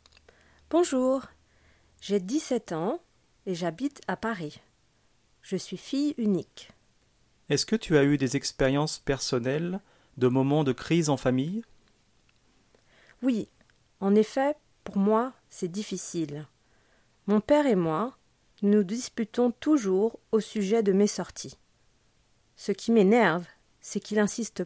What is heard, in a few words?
Dialogue, French